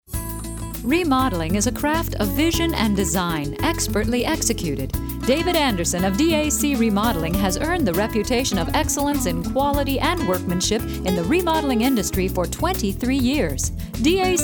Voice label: Commercial